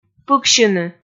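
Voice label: "пыксьыны", Komi, female; kpv audio dict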